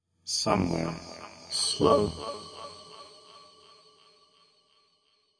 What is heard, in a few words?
processed male vox poetry bits